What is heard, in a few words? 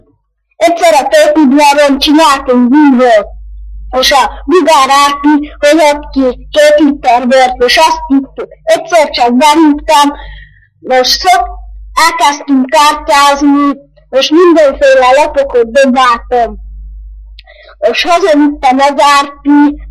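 dialect collection; nyelvjaras gyujtesek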